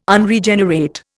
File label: "Unregenerate", Indian